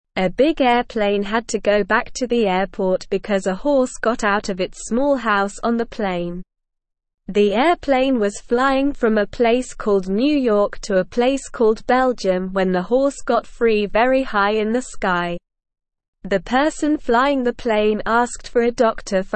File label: English Beginner SLOW Reading; Horse Escapes Plane Causes Trouble Needs Help